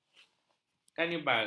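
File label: "kanibal", Malay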